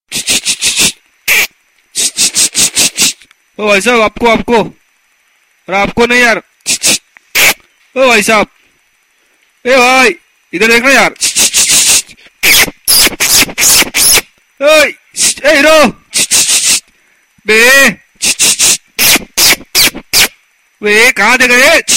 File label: funny prank call, Hindi Funny Ringtones